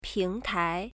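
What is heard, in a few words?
"pingtai", Chinese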